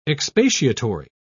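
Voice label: "expatiatory", British English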